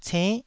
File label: "cenn9", taiwanese Syllables